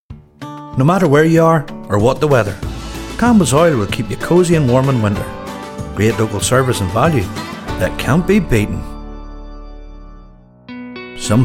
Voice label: Commercial